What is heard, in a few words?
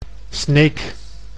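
"Sneek", Dutch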